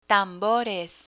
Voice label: "tambores", Spanish